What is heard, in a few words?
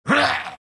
troll pain; dota; troll warlord